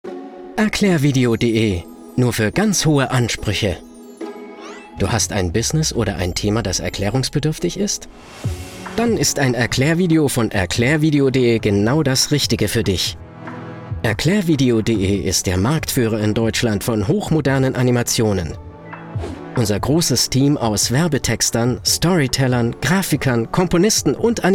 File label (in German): Erklaerfilm